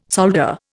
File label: "solder", Indian